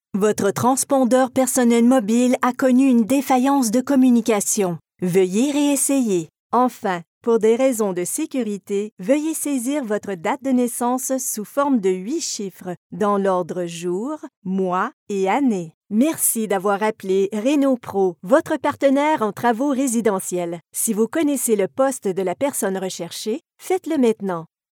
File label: French Canadian ivr; französisch-kanadische Synchronsprecherin